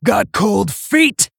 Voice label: Female Tauren Attack